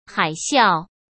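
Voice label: "海啸", Mandarin Chinese; chinese-flashcards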